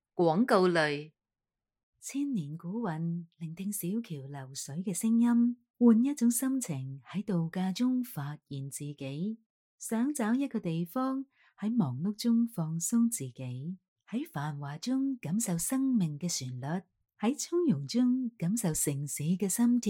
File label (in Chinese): 粤语女声 声展; 一焱堂